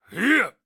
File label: male skill; Enemys